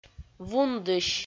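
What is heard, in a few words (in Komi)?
"вундысь", Komi-Zyrian, female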